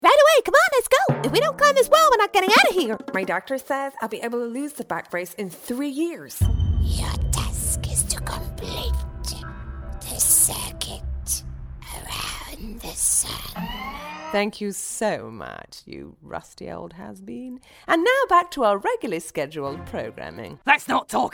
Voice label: animation